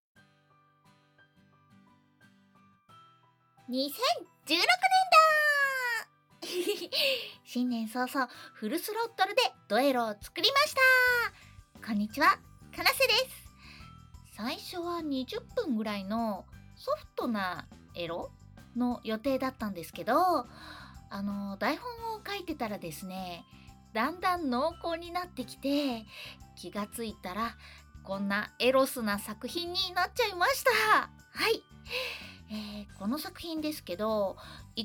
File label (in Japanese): フリートーク